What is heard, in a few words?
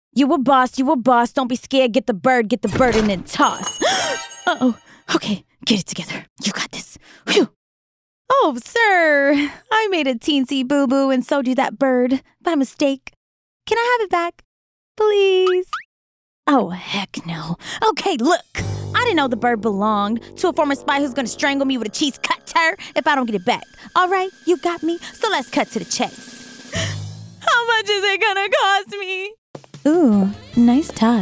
Animation